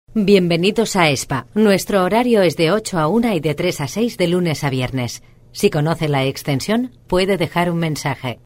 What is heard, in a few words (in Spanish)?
locutora spain